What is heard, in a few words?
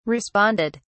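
"responded", British English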